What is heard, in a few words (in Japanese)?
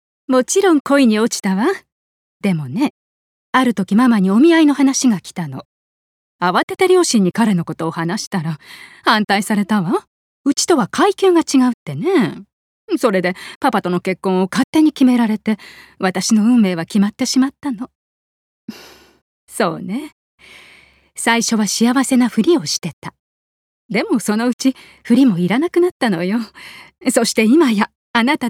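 馴れ初めを娘に話す母, ボイスサンプル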